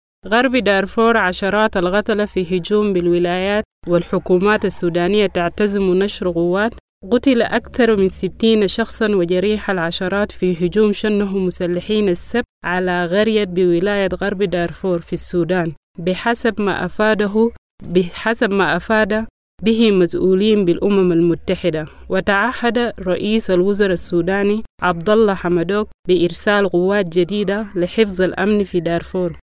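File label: Arabic Juba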